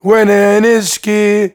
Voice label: MAN VOICE, Arabian Traditions

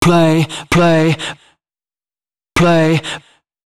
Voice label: male d#130, spoken hooks; Mutekki Media; Dance Ultra Voice VOL-1

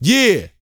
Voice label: "YEAH" F; R&B & Dance; HIP-HOP; ILIO; Vocal Planet

